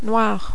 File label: "noir", French